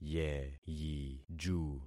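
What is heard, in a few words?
"yeyiju", concatenated syllables; Affixing experiment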